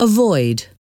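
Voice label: "avoid", English word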